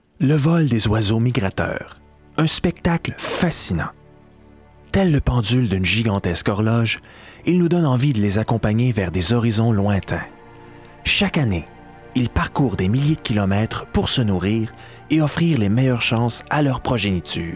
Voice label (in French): demo voix